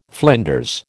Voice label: "flinders", American